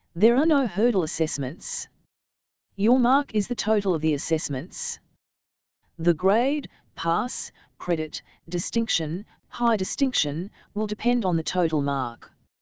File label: dialog